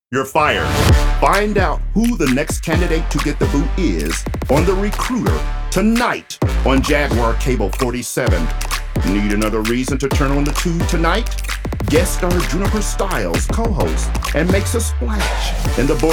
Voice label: Television Station Imaging; Fashionista TV

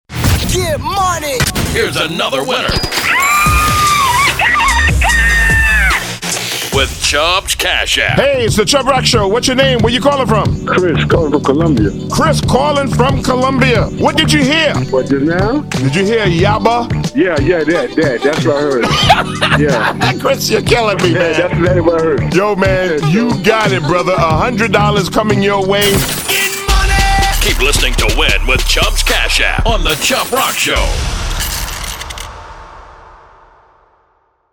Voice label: Promo; Chubb Winner 12

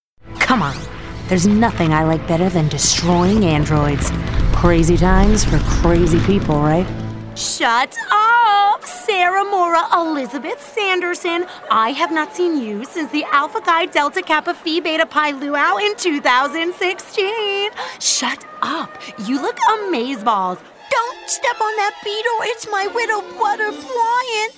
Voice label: Animation

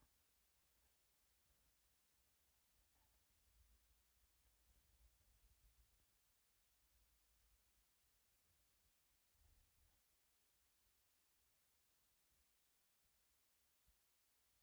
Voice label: Studio Sound

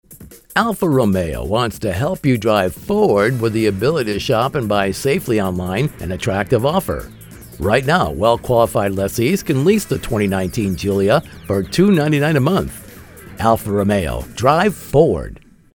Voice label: COMMERCIAL; ALFA ROMEO

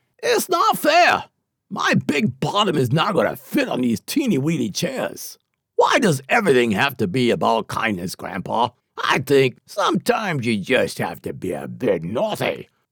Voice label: comical sausage pot character

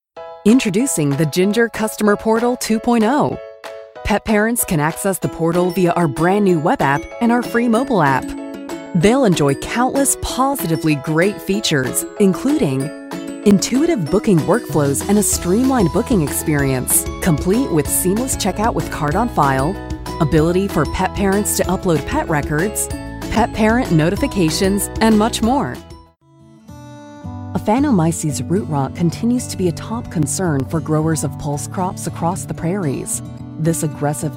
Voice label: Narration

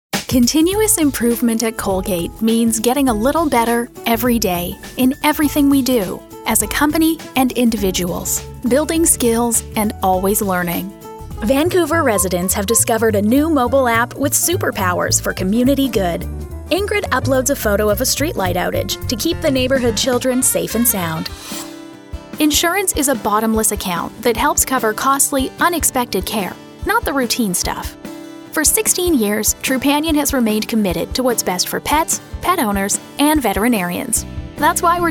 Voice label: Narration

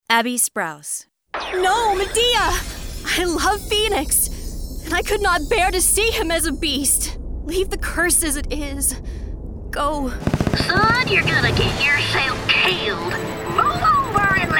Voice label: Animation

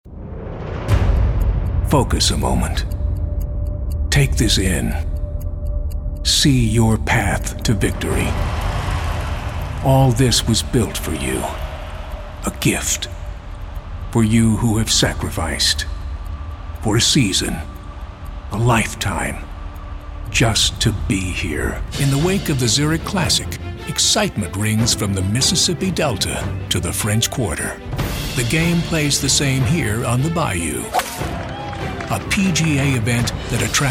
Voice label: Narration

